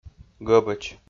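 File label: "гӧбӧч", Komi, male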